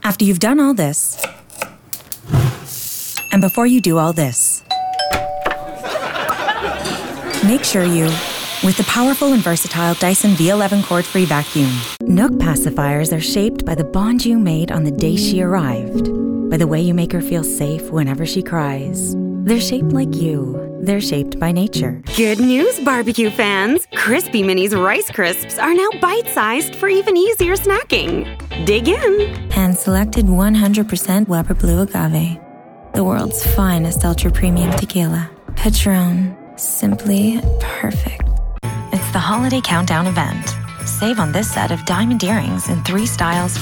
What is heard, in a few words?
Commercial